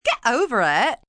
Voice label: Over It Valley, Attitude, female; Talking Sound Effects; Sound Effects:Voice; VoiceBox